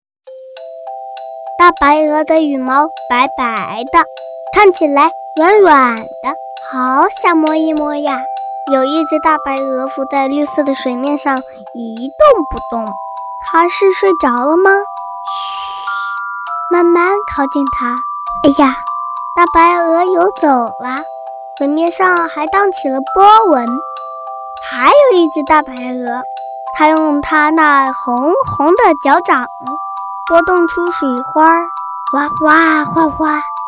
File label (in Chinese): 男童